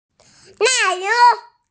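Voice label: "nai3niu2", Mandarin Chinese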